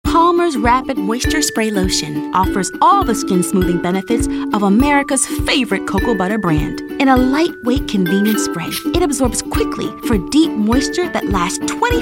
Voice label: 30s Female Commercial

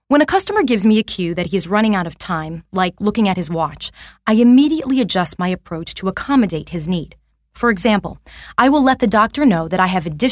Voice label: 20s 30s Female Narration

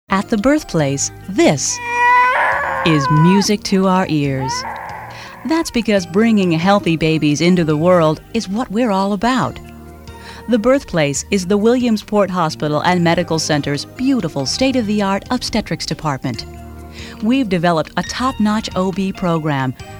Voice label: 30s 40s Female

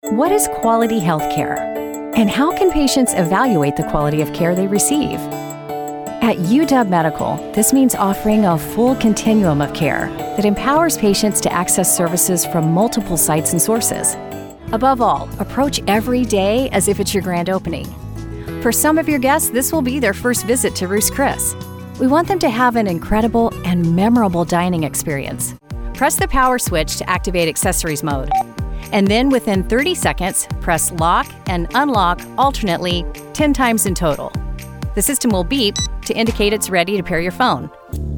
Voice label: 40s Female Narration

